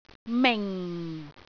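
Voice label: "ming4", Cantonese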